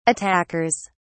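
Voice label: "attackers", English, British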